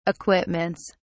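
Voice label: "equipments", British English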